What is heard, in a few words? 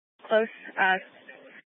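Incident Tac 3, Scanner audio recording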